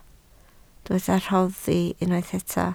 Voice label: "rhoddi", Welsh

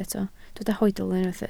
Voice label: "hoedl", Welsh

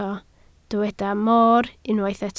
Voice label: "mor", Welsh

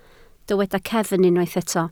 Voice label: "cefn", Welsh

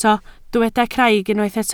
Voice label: "craig", Welsh

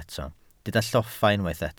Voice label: "lloffa", Welsh

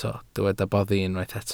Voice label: "boddi", Welsh

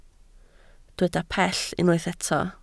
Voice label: "pell", Welsh

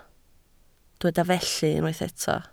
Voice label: "felly", Welsh

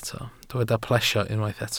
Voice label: "plesio", welsh

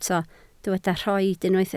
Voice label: "rhoid", Welsh

